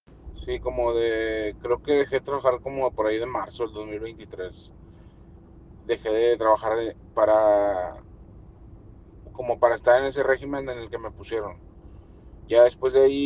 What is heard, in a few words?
whatsapp ptt